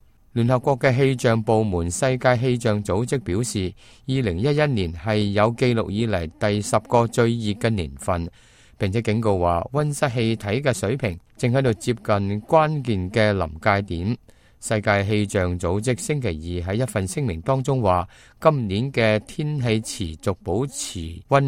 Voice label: cantonese, news